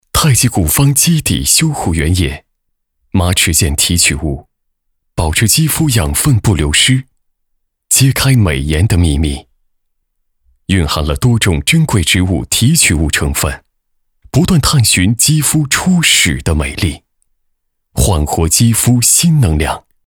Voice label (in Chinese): 男 广告 独白